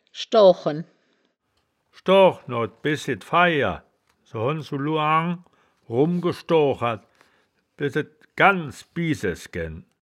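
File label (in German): "schdoo-chen", wittlicher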